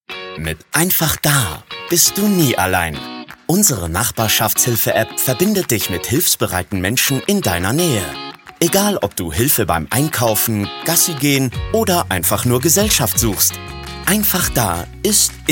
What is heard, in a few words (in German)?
Werbung App Einfach Da freundlich